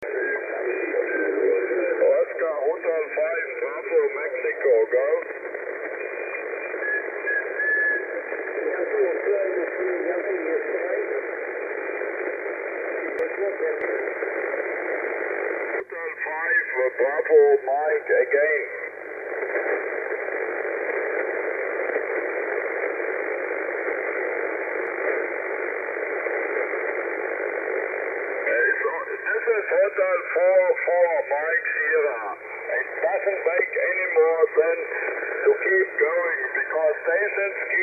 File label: 40m ssb